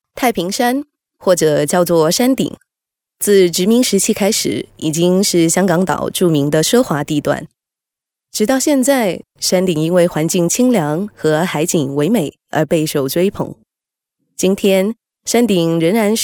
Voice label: Other Projects Chinese Mandarin Female

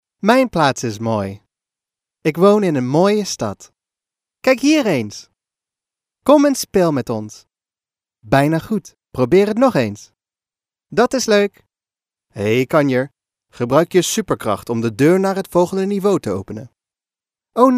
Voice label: NL male children

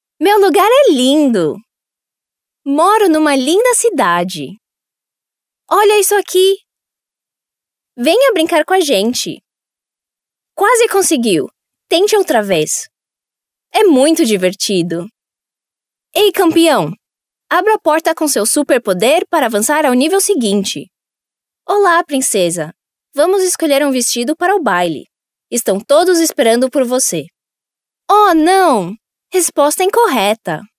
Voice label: BR fem children

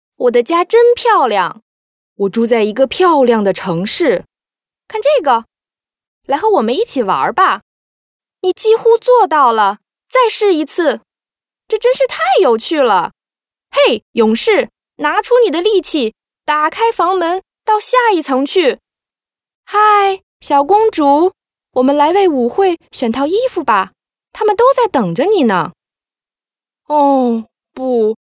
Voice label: Toys Chinese Mandarin Female

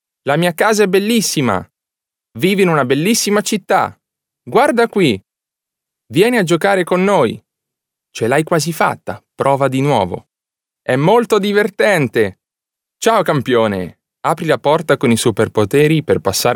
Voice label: Male Italian Toys

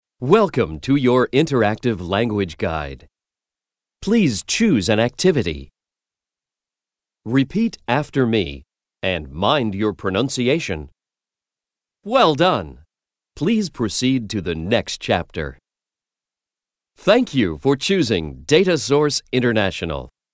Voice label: US male corporate

